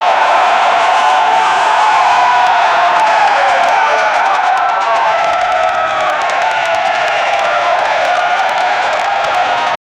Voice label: little girl saying cheers

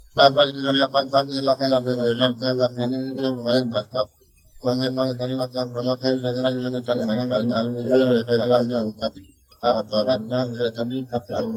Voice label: aliens babbling in their

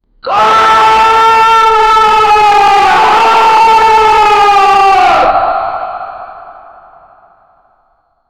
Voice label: policeman screaming

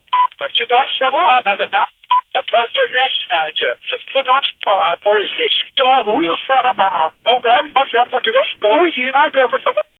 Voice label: giberish annoying preside

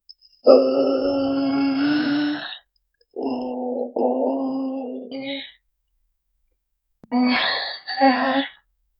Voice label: pissed demonic annoyed vo; anger

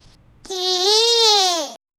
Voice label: a little boy is sarcastic; human vocal

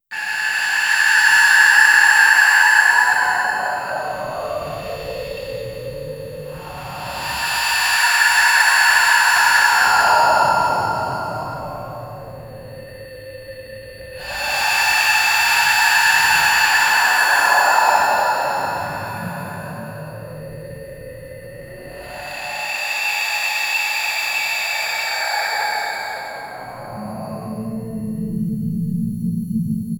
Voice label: dark mystical whispering

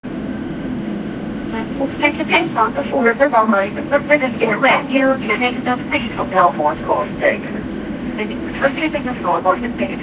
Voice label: old cockpit voice recorde, aviation

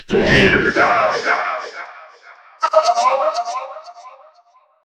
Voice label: a dj sample mentioning, beat

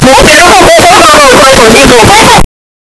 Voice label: game character talking, voice acting

